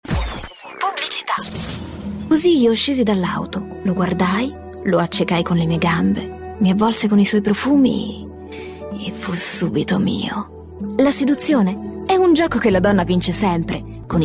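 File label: F, Italian, female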